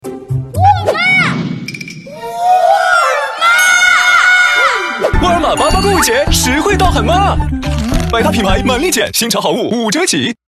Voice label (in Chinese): 高端 男 活泼 激情 购物节; 沃尔玛·购物节